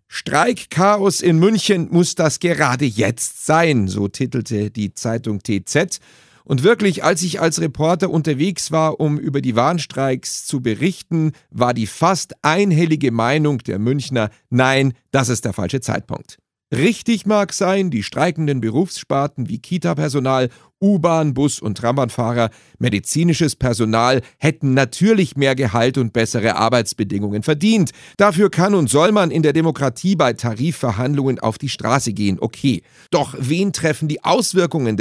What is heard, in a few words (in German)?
Kommentar; Warnstreiks